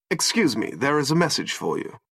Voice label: Excuse Me Loud; Jarvis

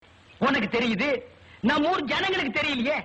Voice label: Tamil Comedy

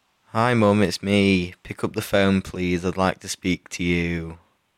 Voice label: Son ring mummy

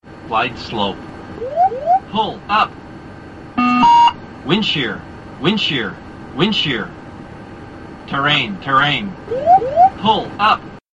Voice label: Computer Voices

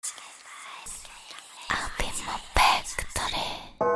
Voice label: Oh my god whisper

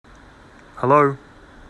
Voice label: Hello Australian

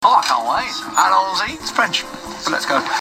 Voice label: "Allons Y" French

